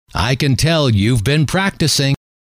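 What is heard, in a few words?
i can tell you have been practicing, male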